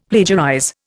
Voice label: "plagiarize", Indian; Dictionary